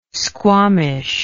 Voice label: "squamish", English

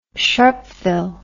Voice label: "sharpeville", English

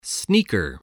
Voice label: "sneaker", English voice

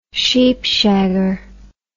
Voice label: "sheepshagger", words

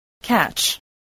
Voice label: "catch", spelling

